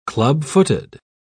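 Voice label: "clubfooted", English, British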